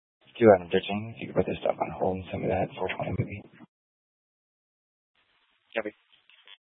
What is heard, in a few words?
Mesa PD Dispatch